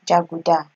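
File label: "jaguda", Nigerian Pidgin, female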